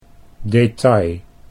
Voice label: "detail", Dutch

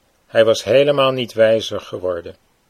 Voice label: hij was helemaal, Dutch

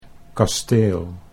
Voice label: "kasteel", Dutch

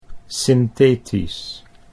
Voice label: "synthetisch", Dutch

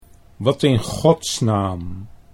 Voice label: "wat in Gods naam", Dutch

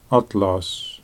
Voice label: "atlas", Dutch

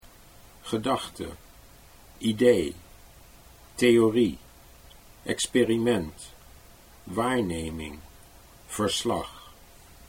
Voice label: gedachte idee, Dutch

